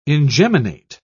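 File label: "ingeminate", British English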